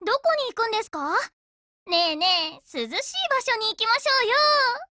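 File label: HELLO, Japanese; GF Alarm; C96Mod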